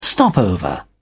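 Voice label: "stopover", English, British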